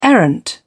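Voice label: "errant", British English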